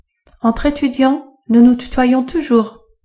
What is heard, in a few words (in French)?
entre étudiants nous nous tutoyons toujours, female